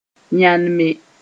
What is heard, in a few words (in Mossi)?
"yãnme", moore